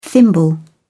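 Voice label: "thimble", British English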